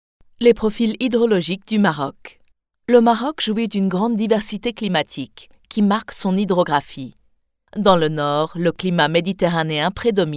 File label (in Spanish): locutora francesa french voiceover audioguide, Locución para audioguía de museo; Locución para audioguía de museo de Marruecos